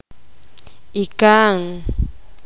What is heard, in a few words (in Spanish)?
tio el hermano mayor de su madre, poqomam